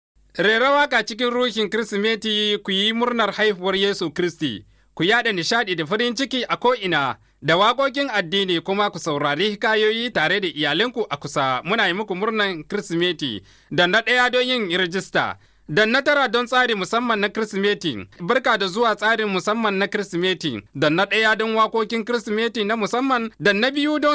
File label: Yoruba